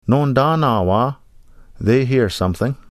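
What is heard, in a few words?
"Noondaanaawaa", Ojibwe; They hear something; Nanabush Looses The Meat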